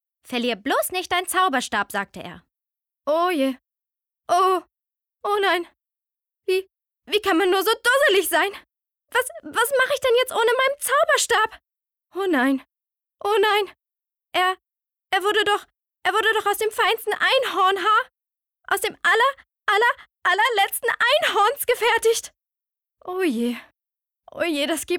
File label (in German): Schauspiel Anime suess